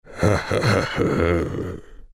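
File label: growl, Chinese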